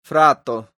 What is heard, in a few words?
"frato", esperanto